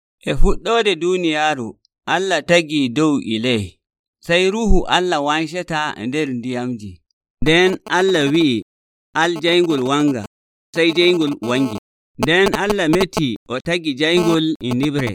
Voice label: Fulfulde Nigerian Creation